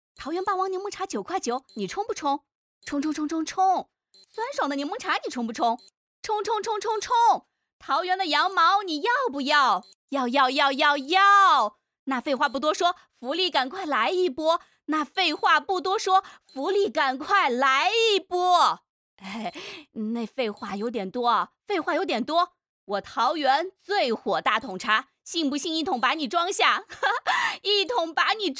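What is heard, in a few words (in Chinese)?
广告清茶; 微雨清茶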